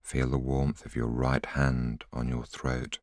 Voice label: voiceover